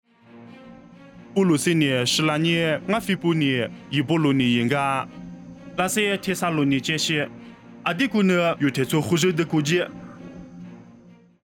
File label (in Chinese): 凉山彝语; 地中海暗妃波里; nuosu